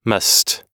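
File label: "must", American English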